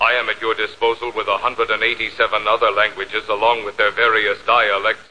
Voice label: disposal languages dialects, robotic or stuttery or spooky